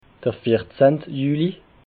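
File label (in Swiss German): "vierzehnt", Alsatian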